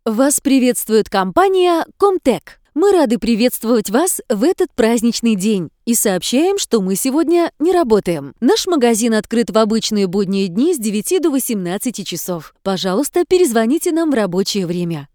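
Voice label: ivr Russian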